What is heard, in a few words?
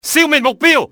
eliminate target, enemy cantonese male; XBOX